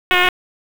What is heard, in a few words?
Police Southwest District Disp; wake p25